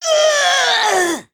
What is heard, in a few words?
Male Imp Death